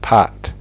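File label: "pot", English; fonetica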